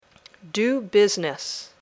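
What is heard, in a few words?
"do business", English words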